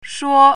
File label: "shuo1", Chinese pinyin